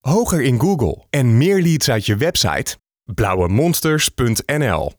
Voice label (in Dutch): spot; lauwe Monsters; leads